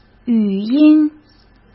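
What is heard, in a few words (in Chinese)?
"语音", Mandarin; chinese-flashcards; hsk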